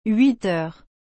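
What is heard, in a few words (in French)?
"huit heures", French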